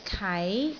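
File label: "kai2", Cantonese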